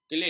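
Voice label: "kelit", Malay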